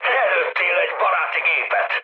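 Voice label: Hungarian player killed ally mood med, speech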